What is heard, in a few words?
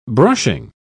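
"brushing", English, British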